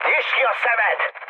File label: player friendly fire, mood high, speech hun; War Thunder